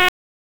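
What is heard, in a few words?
Raleigh Police North District Tactical, p25; wake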